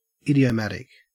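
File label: "idiomatic", Australian English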